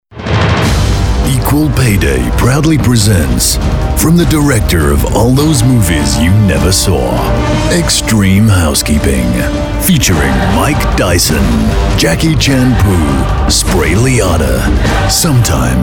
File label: US trailer; Equal Payday